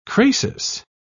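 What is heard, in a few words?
"crasis", English, British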